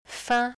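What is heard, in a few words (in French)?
"fin", French; ɛ̃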